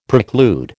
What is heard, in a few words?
"preclude", American